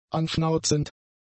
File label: "anschnauzend", German; adjectives